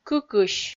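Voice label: "кыкысь", Komi-Zyrian, female; kpv audio dict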